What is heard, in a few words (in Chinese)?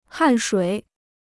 "汗水", Chinese